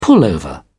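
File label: "pullover", British English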